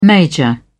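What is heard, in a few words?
"major", British English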